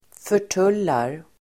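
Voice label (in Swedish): "förtullar", Swedish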